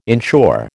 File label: "inshore", American